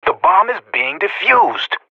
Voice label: Professional Radio bot bomb defusing; Counter-Strike: Global Offensive

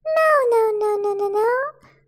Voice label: Female

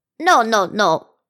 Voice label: Concerned; Megabyte

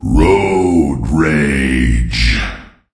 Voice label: Voices Announcer Reward

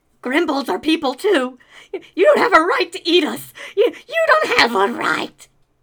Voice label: Male Begging

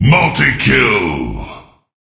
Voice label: Voices Announcer Reward

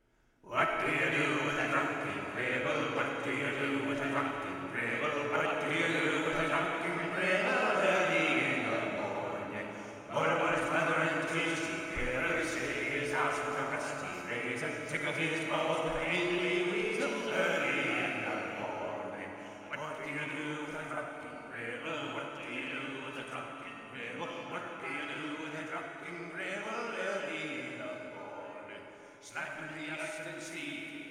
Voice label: Voices Drunken; Gribble

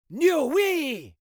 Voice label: Voices Jamaican No Way

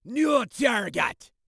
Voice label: Voices Jamaican New Target

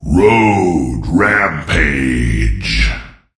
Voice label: Voices Announcer Reward

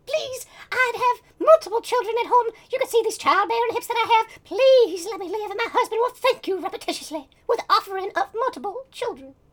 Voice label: Voices Female Beggings

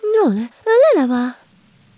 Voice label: Concerned; Good Pizza, Great Pizza; Android; Octavia

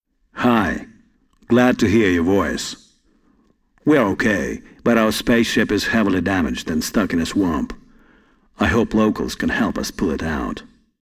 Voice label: Voice Dialog; We Are the Dwarves

